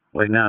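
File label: Dispatch, p25; WSO DISP 1; wake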